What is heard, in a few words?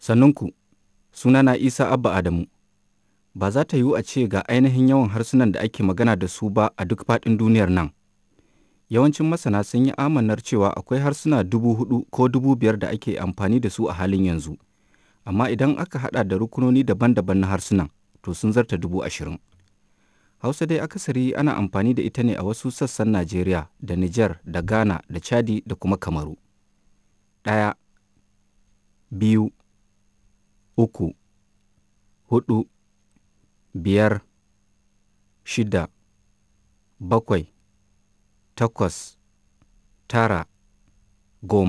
hausa